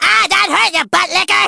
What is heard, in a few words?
hurt; Furby